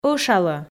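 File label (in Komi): "ӧшалӧ", Komi, female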